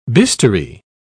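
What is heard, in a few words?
"bistoury", British English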